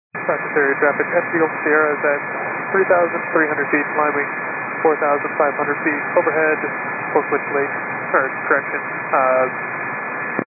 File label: 122 925MHz, scanner radio